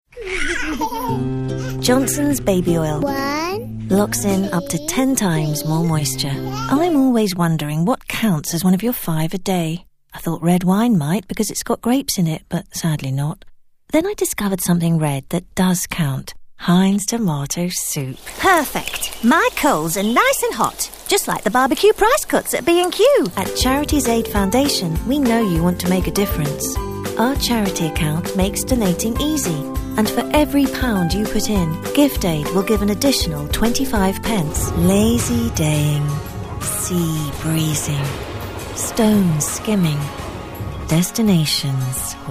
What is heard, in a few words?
Commercial